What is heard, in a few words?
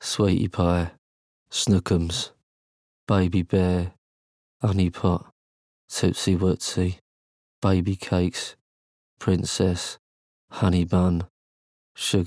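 Radio VO en-GB Pet Names M, British English, male; KitKat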